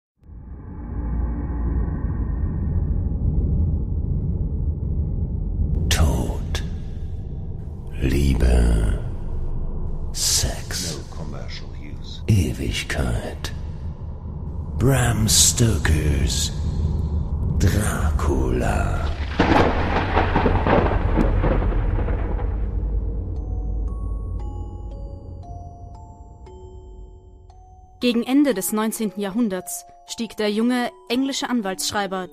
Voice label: Hoerspiel; Dracula

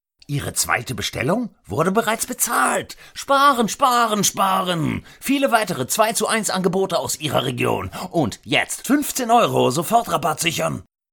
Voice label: Werbung knallig

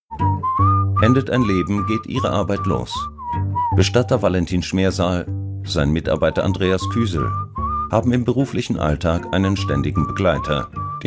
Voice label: Doku Bestattung; WDR

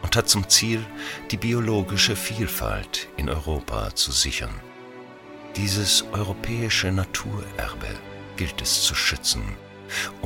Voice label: Doku Natura 2000 Musik

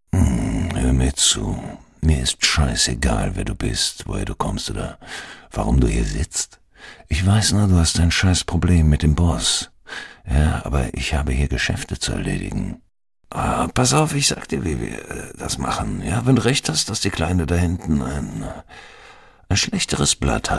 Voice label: Hoerspiel Gangster

